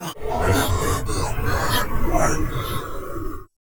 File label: Wanna Say Something Dry